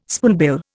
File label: "spoonbill", Indian